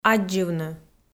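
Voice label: "аддзывны", Komi-Zyrian, female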